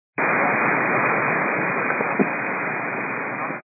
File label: 122 725MHz, scanner radio